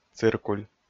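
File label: "циркуль", Komi, male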